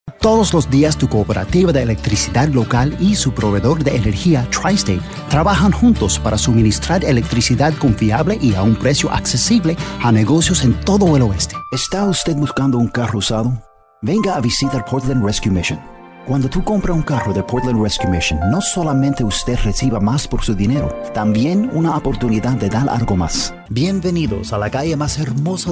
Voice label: Spanish